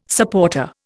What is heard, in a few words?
"supporter", Indian